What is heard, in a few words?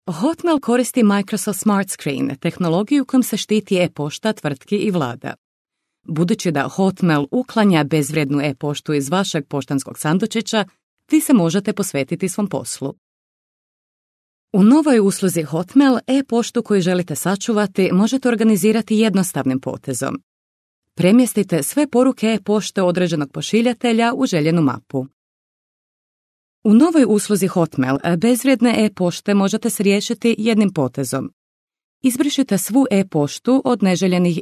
Croatian training; voice over